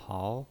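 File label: "hao", Mandarin Chinese, second tone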